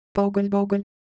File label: Korean Sound; ideophones